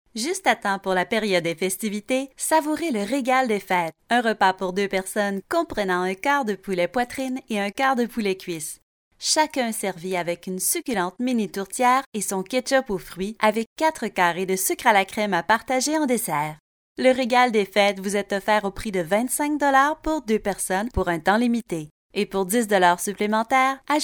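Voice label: French Canada female, french canada voice over talent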